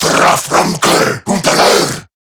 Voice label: Attack, Male